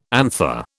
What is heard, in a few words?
"anther", British